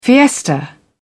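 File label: "fiesta", English, British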